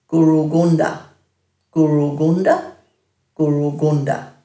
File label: "gurugundha", Dhurga